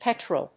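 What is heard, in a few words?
"petrel", English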